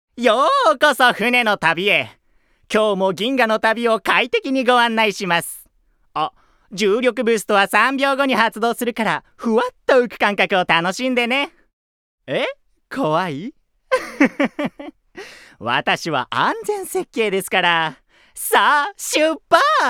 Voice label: Dialog